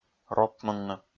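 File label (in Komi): "ропмунны", Komi, male; kpv audio dict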